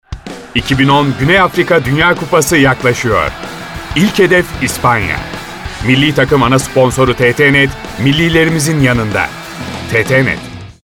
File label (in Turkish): enerjik tempolu; seslendirme